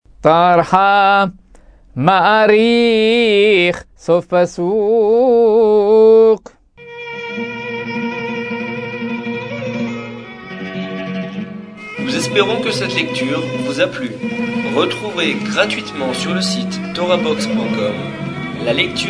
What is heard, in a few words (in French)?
apprentissage taamim selon le rite constantinois; paracha